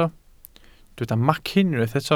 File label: "macyn", welsh